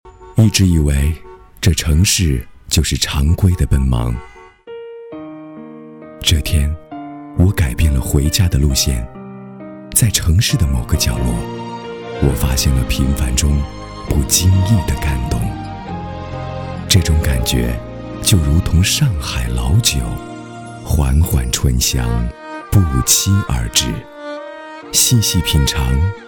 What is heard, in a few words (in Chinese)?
男 广告 温情 低沉; 上海老酒